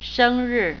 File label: "birthday", Mandarin